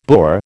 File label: "boor", American